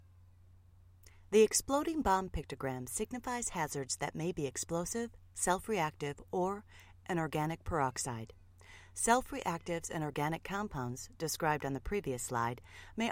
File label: Narration